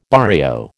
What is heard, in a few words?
"barrio", American